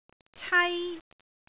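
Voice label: "cai1", Cantonese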